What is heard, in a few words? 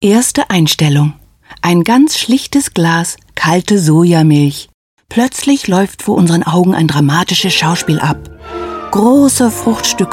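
DE General, German; GoLocalise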